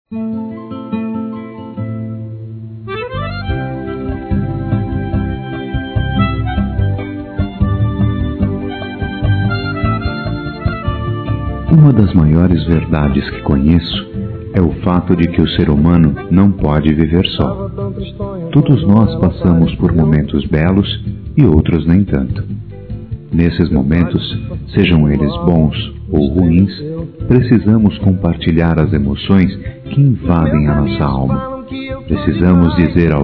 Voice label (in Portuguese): Masculino, Dia Dos namorados; Telemensagens; Conte Comigo; Telemensagens 2017